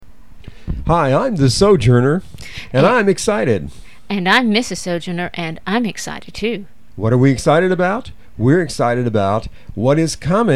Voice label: Radio Promo